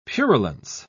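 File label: "purulence", British English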